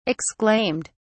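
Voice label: "exclaimed", British English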